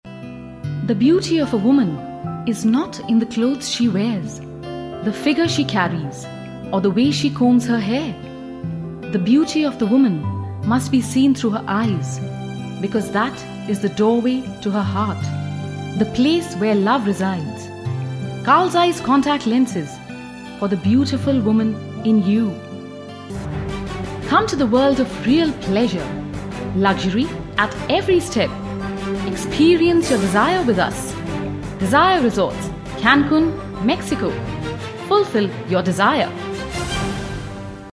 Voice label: eng promo, delhi, female voice